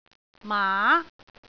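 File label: "maa2", Cantonese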